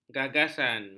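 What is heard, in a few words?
"gagasan", Malay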